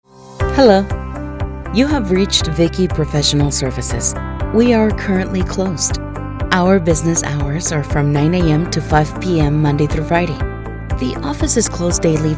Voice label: Message On hold Bilingual

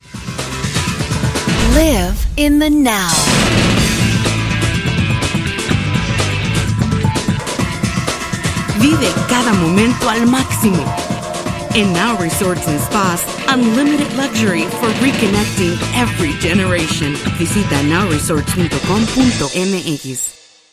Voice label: English and Spanish

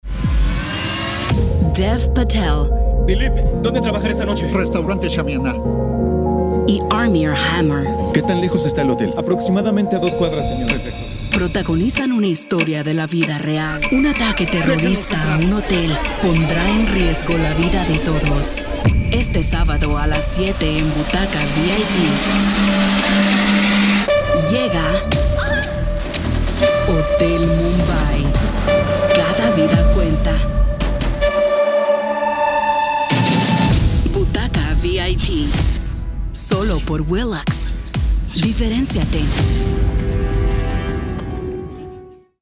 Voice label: PROMO Spanish

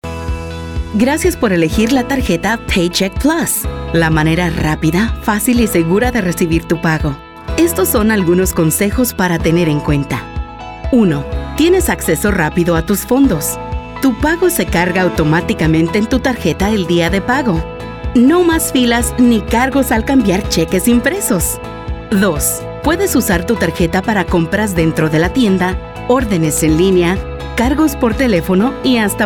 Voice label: Explainer Video Spanish

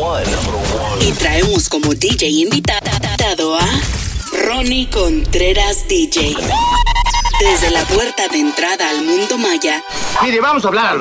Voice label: Spanish Imaging, radio imaging voice over

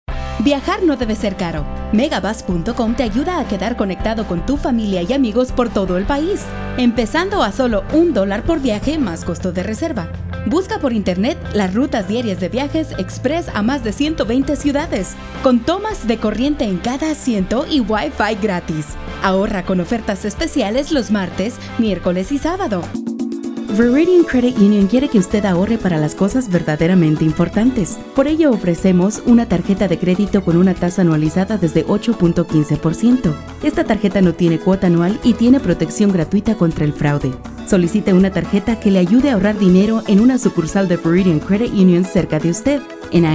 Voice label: SPANISH COMMERCIAL

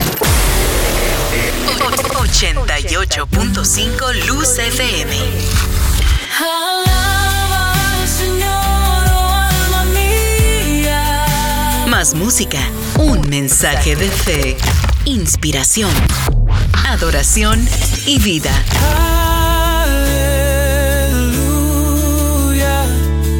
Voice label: Spanish Imaging Christian

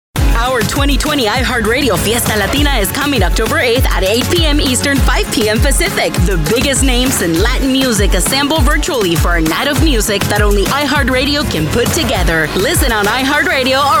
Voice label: Imaging English

